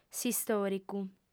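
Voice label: "s'istòricu", female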